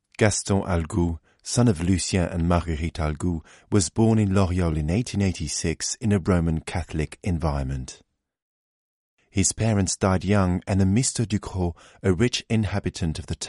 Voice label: English M French Read, accent of france; voice over